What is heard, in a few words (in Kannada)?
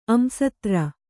"ಅಂಸತ್ರ", Kannada